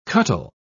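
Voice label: "cuttle", British English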